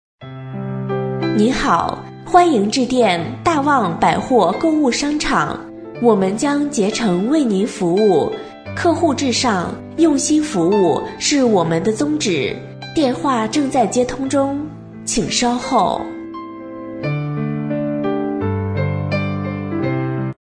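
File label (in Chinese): 女彩铃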